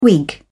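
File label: "whig", British English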